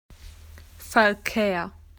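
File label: "traffic", Australian English; PictureDictionary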